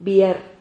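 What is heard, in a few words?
"biar", Spanish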